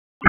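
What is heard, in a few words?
Dispatch 1, P25; WSO DISP 1; wake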